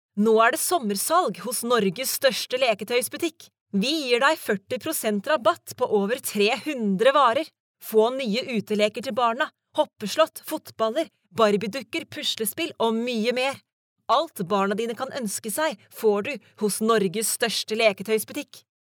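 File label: Norwegian Norway female sales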